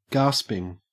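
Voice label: "gasping", Australian English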